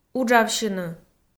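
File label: "уджавсьыны", Komi, female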